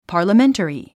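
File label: "parliamentary", English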